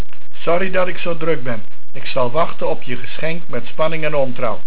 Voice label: "Sorry", Dutch